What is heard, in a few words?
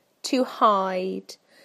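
"to hide", British English; PictureDictionary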